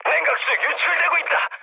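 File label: Korean damaged water mood med, speech; War Thunder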